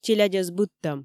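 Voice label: "челядьӧс быдтӧм" Komi, female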